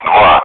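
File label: Speech, Russian, air traffic control; Digits; DCS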